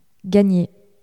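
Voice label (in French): "gagner", French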